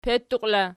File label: "petuqi", Archi